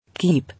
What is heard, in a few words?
"Keep", English